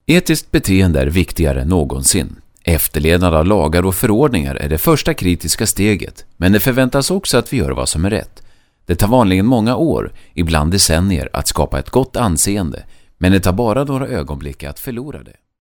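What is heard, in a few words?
Swedish, male, narration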